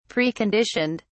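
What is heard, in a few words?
"preconditioned", British English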